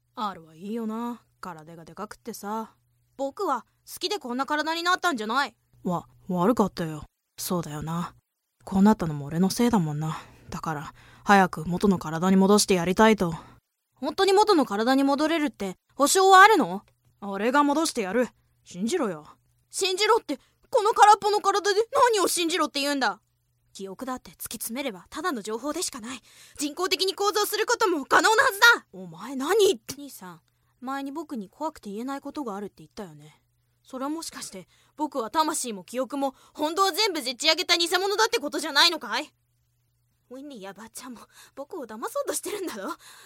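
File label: Japanese, Animation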